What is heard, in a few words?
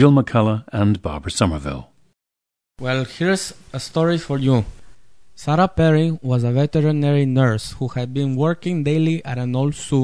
jalisco, dialects